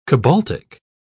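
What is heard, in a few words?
"cobaltic", British English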